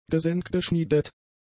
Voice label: "gesenkgeschmiedet", German; adjectives

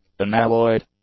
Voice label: "unalloyed", English; adjectives

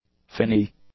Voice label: "finny", english; adjectives

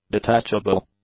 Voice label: "detachable", english; adjectives

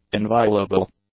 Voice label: "inviolable", english

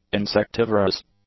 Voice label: "insectivorous", English; adjectives

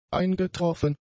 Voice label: "eingetroffen", German; adjectives

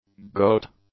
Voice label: "goat", English; nouns

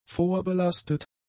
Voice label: "vorbelastet", German; adjectives

